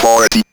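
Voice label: ROBVOX, robot voice; E-Lab; X Static Goldmine 1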